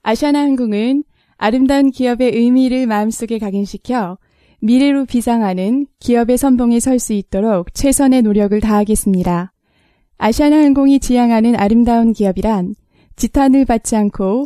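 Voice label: Korean; Male; 40s-50s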